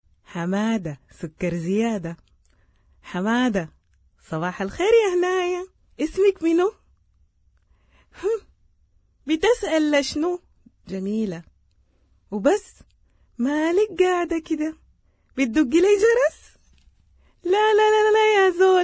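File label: Arabic Sudanese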